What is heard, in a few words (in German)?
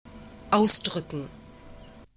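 "ausdruecken", German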